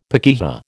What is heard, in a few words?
"pakeha", American